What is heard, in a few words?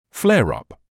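"flare-up", British English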